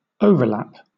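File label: "overlap", British English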